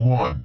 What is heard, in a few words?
won dry, powerful commander robot voice; Leevaudio